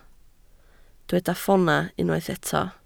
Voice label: "ffoonau", Welsh